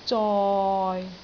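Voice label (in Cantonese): "zoi6", Cantonese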